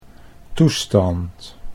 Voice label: "toestand", Dutch

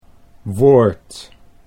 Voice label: "woord", Dutch

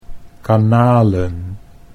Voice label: "kanalen", Dutch

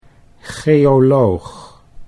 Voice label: "geoloog", Dutch

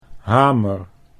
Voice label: "hamer", Dutch

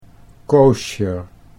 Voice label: "koosjer", Dutch

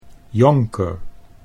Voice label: "jonker", Dutch

